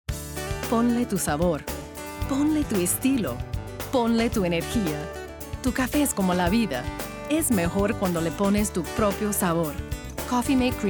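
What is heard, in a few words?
Spanish Latin American Commercial Friendly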